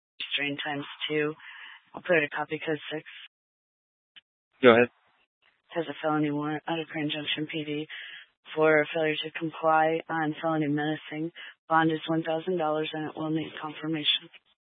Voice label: Incident Tac 3, scanner